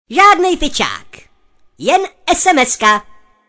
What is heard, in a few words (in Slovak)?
sms hlásky cz